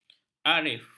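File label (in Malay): "arif", Malay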